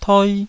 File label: "toi2", taiwanese Syllables